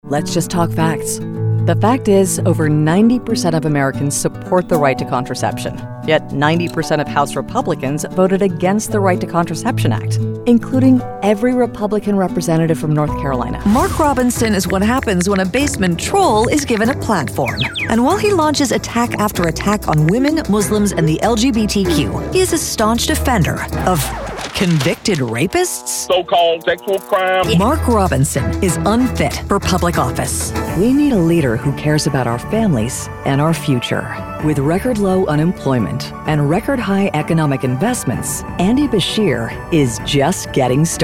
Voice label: Democrat Voiceover, Political Voices English

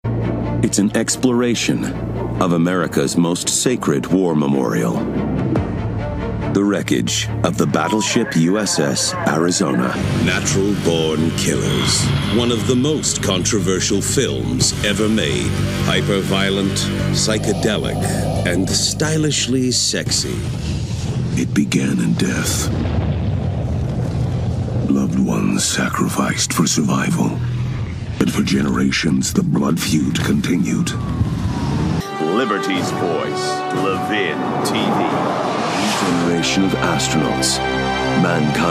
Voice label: Republican Voiceover, Male Republican Voiceover; Political GOP Voices English MALE

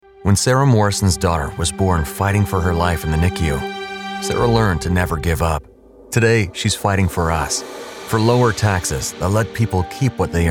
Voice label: GOP Voiceover, Male; Political GOP Voices English MALE

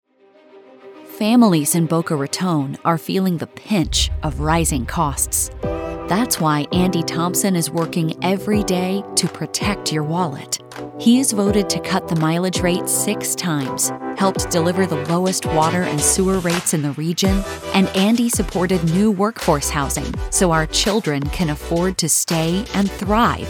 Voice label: Democrat Voiceover, Political Voices English

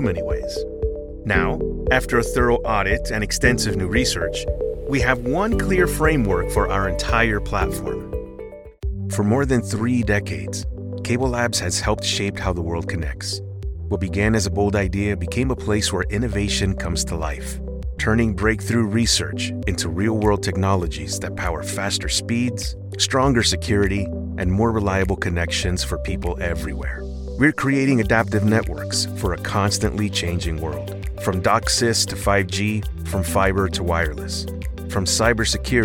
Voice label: eLearning Voice Over

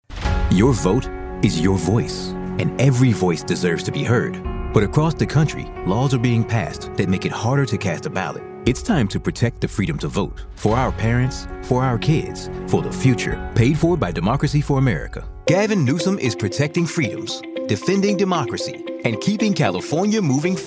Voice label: Political DEMOCRAT Voices English MALE

